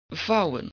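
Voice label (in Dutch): "vouwen" Dutch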